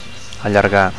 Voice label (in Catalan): "allargar", Catalan; to lengthen